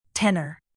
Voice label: "tenor"